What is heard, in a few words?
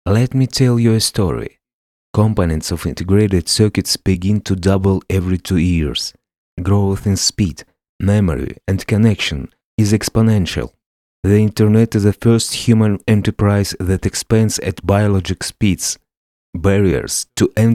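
Russian, English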